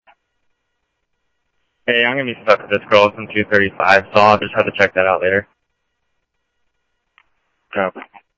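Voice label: Mesa police department, scanner audio trunk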